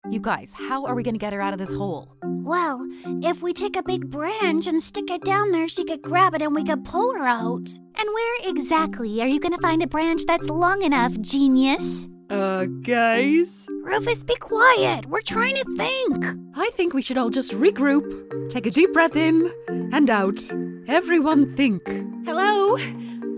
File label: women animation; The Modern Mom. Friendly, playful and creative.